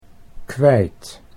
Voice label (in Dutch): "kwijt", Dutch